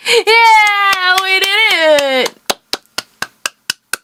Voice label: Victory, Worms speechbanks; Mori Calliope